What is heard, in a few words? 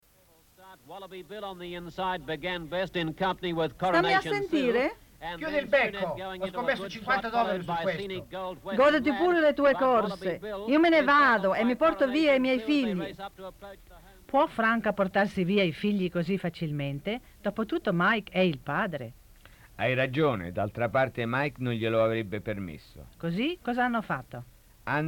Advert